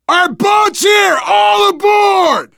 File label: arrive boat initial, voice, biker; Left 4 Dead 2; left4dead2 dlc3; survivor